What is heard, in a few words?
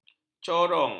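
"corong", Malay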